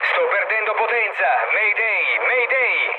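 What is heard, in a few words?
Italian damaged engine mood low, speech; War Thunder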